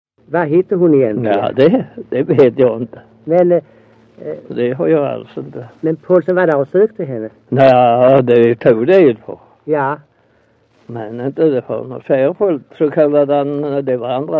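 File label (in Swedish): Össjö Skåne, dialekter; Folkminnen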